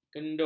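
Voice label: "kendo", Malay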